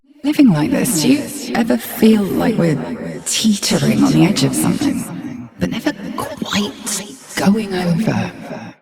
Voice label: Ambient Barks, en